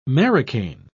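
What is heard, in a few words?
"marocain", English, British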